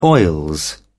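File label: "oil", British English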